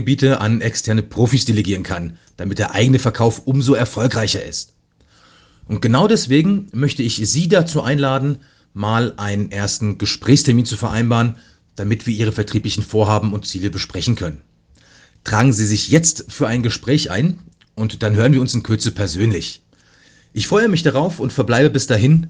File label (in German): Telefon Akquise professionell